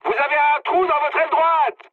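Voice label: right wing, mood high, French; War Thunder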